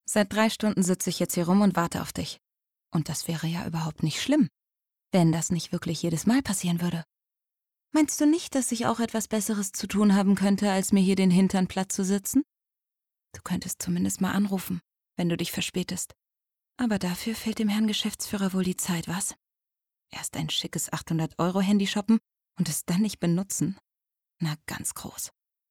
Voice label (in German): rolle nervöse frau